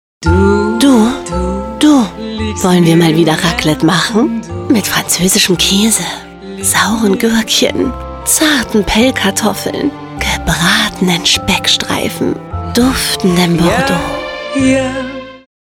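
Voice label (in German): szene, sprecher; LOFT VOICES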